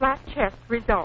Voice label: flat chest result, Quirky Female Typing Instructor; VOCALS and SPOKEN WORD